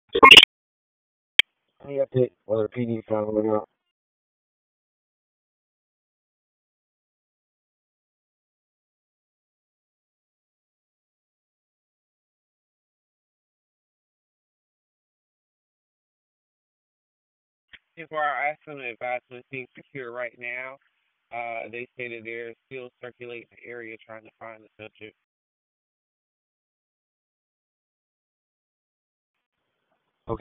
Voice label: PS TAC, p25; wake

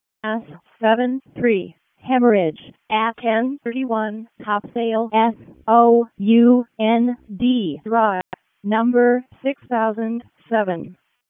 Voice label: EMS ALERT, p25

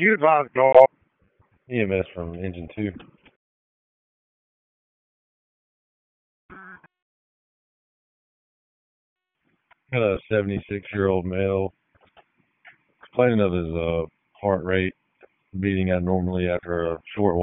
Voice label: PS TAC, p25

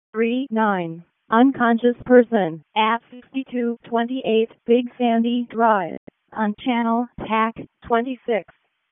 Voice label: EMS ALERT

